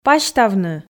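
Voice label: "пасьтавны", Komi, female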